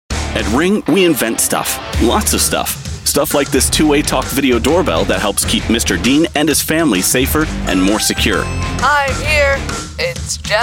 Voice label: COMMERCIAL